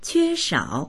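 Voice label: "que1shao3", Mandarin Chinese; 缺少; 欠けている、足りない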